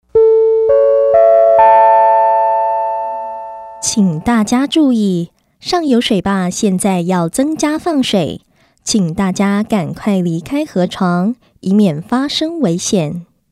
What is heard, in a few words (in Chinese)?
Warning cn; 水坝放水告警提示; 遥监测系统告警、安防、消防系统